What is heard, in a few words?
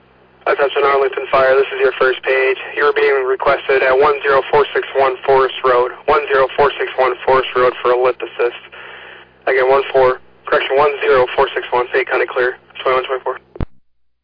Arlington Fire, dispatch